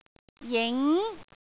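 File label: "jing2", Cantonese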